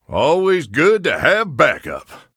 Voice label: Human Emote Hire; Bacon Shop Bob; Reno Jackson

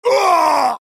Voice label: Male Dwarf Death

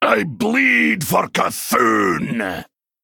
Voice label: Male Dwarf

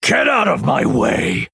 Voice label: Male Night Elf

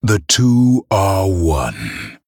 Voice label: Male Human Attack

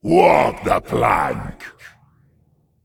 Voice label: Demon Emote Threaten; Patches

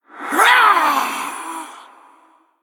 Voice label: Male Human Ghost Attack

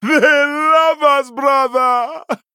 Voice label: Male Troll

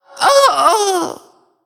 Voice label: Male Kobold Death

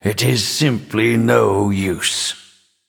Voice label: Male Nightborne

